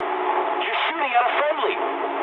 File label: eng player friendly fire mood low, speech eng